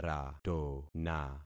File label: "ratona", concat syllables; Affixing experiment